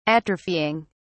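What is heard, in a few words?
"atrophying", English, British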